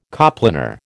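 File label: "coplanar", American English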